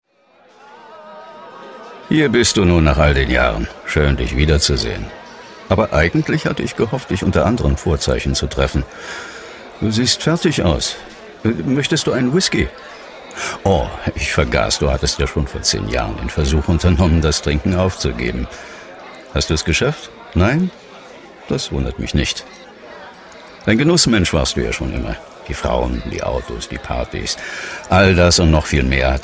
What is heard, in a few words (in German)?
hoerspiel